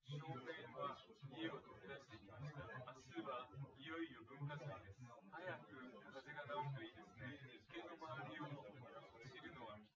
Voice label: male; SiSEC08; SiSEC underdetermined

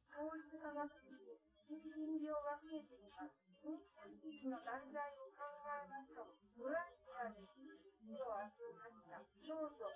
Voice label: female, live rec, 250ms, 5cm

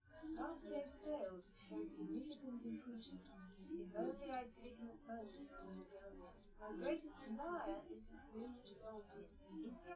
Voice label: female live rec; SiSEC10; underdetermined

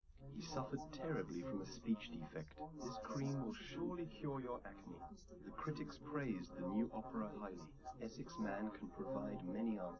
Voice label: male live rec

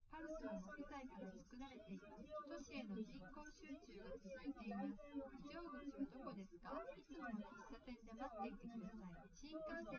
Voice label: female, live rec; SiSEC08; SiSEC underdetermined

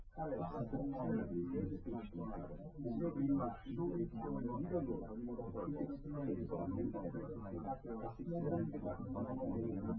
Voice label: male liverec

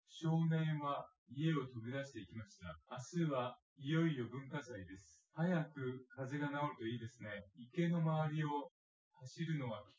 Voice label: male synthconv 250ms 5cm

